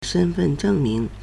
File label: "shen1fen4zheng4ming2", Chinese